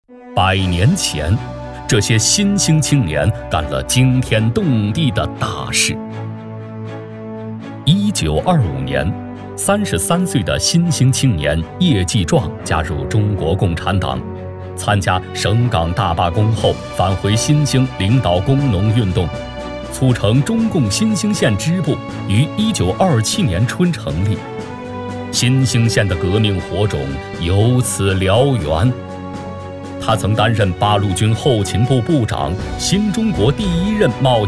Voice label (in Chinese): 男 党史 人物专题; 新兴青年